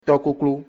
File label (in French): "poule d'eau", Fongbe; Tɔ-kloklo